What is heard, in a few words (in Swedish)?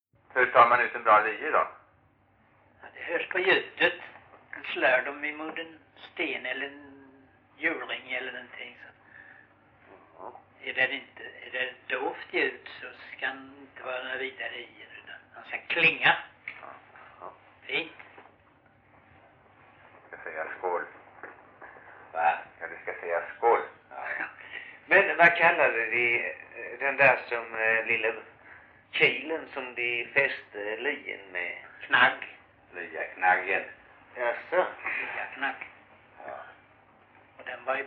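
Rolfstorp Halland, dialekter; Folkminnen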